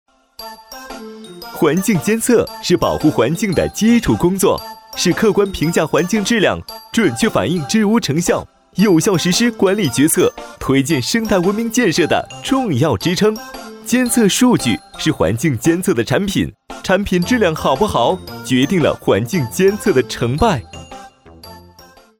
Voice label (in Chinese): 男国 宣传片 病毒 环境监测; M环境监测